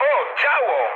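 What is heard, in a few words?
Polish damaged anti-aircraft mood medium; War Thunder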